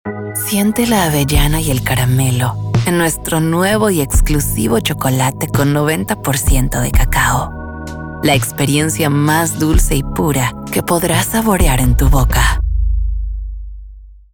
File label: Sensual Sofisticado Sensual Sophisticated